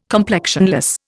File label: "complexionless", Indian